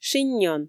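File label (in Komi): "шиньон", Komi, female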